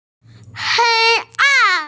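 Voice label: "hei an", Mandarin Chinese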